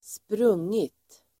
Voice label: "sprungit", Swedish

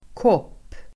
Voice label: "kopp", Swedish

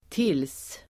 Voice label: "tills", Swedish

